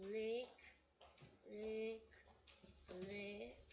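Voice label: "lick", spoken word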